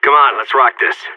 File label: pep talk, seal, player vo; Counter Strike; CS:GO